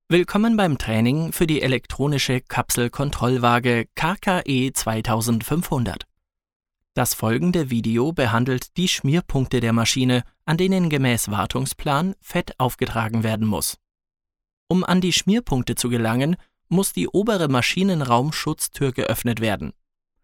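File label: E-Learning, British English; Synthegon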